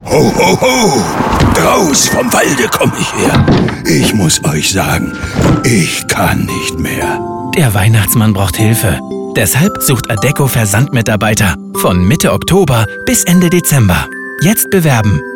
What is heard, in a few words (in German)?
Funkspot; Adecco; bunch sound; Berlin; Funkspotproduktion